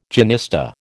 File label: "genista", American